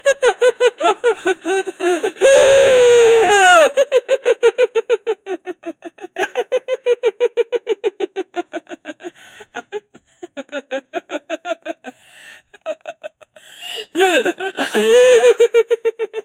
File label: 58 year old african, sad